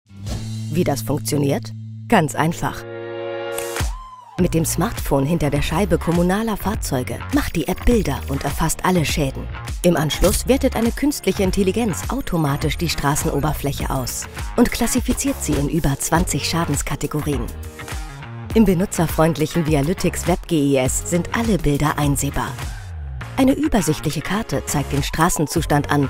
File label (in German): Erklaerfilm; Vialytics; LOFT VOICES